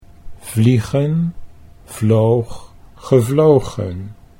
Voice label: "vliegen vloog gevlogen", Dutch